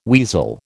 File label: "weasel", American